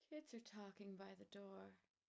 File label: calm; GAN for speech spectrogram